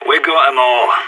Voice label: no enemies left, player vo sas